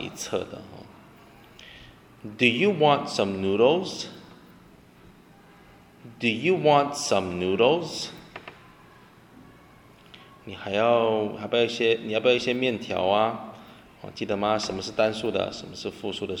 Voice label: conversation